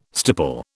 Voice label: "stipple", British